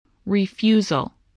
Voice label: "refusal", spelling voice